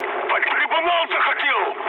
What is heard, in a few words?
ru player killed ally mood med; War Thunder